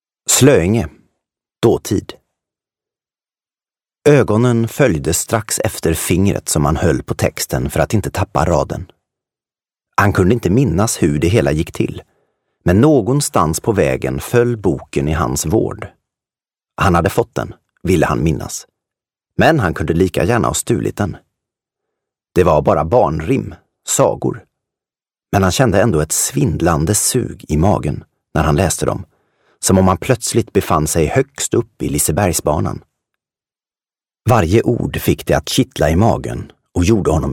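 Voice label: Swedish audiobook male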